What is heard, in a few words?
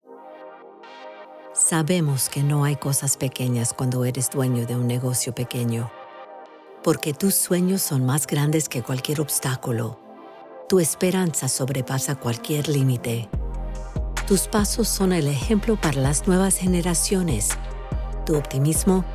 Spanish RADIO; Comcast Rise